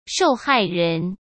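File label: "受害人", Mandarin Chinese; hsk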